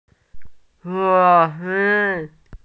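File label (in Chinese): "wu1 gui1" Mandarin Chinese, female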